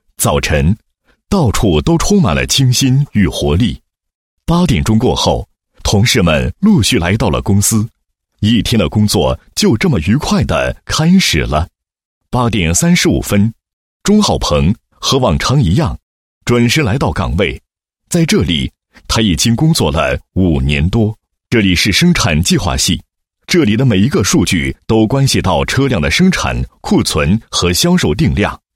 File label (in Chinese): 男 企业 宣传介绍; 怒放吧 GTMC宣传介绍